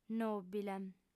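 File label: "nòbile", female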